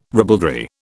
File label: "ribaldry", British